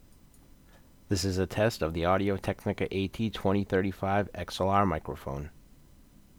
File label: AT2035 test; Audio Technica AT2035 XLR Mic Test Clip